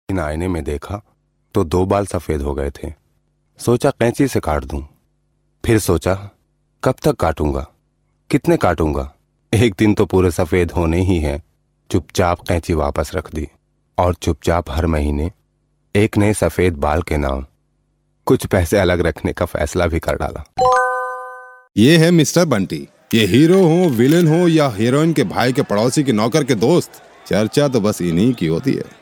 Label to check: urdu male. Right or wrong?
Right